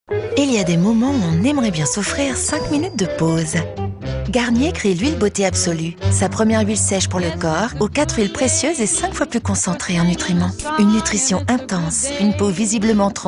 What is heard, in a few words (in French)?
Huile de Beaute Absolue douce heureuse sexy; Garnier